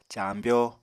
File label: "caambyó", Apatani